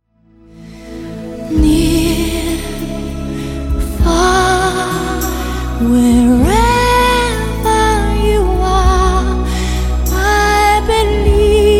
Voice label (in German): Doku, Sprecherin; Stimme von Jennifer Aniston, Kate Winslet